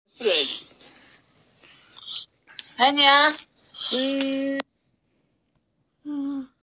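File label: "na2", Mandarin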